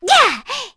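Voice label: Vox Landing, voices heroes English; kingsraid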